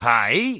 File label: Dwarf Male Standard Greeting; NPC